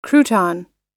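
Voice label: "crouton", English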